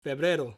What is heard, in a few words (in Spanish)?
"Febrero" February